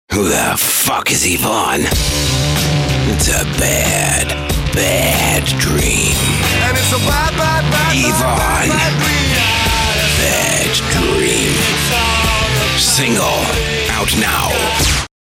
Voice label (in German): us englisch